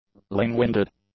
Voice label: "ling-winded", english; adjectives

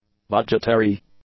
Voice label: "budgetary", English; adjectives

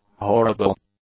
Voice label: "horrible", English; adjectives

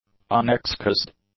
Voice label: "unexcused", english; adjectives

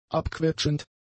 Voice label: "abquetschend", German; adjectives

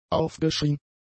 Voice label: "aufgeschrien", German; adjectives

